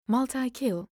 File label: "multi Kill", voice overs